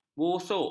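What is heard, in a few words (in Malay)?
"busuk", Malay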